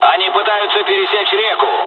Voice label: dialog, dialogs russian; War Thunder